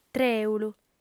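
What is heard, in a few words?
"trèulu", Sardinian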